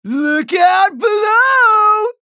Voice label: chatter positive; anarki; frag